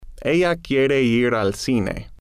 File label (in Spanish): "ella quiere ir al cine", Spanish